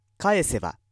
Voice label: male